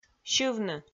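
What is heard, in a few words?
"сьывны", Komi, female; audio dict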